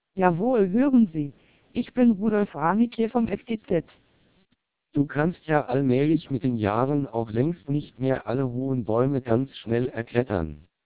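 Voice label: German speech, TWELP 700 bps vocoder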